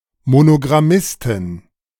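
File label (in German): "Monogrammistin", German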